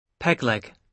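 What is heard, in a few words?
"peg leg", British English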